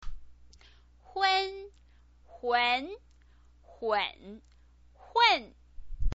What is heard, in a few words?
"hun", chinese pinyin